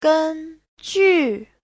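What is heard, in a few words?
"gēnjù", Mandarin Chinese; 根据; Giáo trình chuẩn HSK3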